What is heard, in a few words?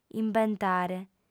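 "imbentare", Sardinian, female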